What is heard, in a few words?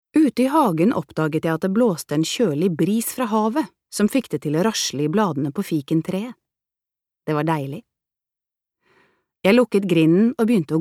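Norwegian audio book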